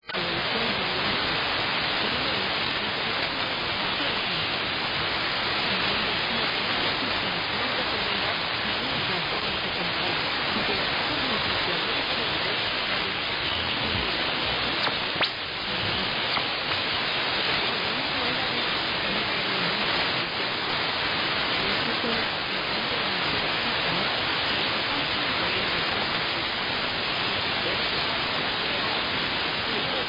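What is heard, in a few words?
9.640 MHz, Radio China International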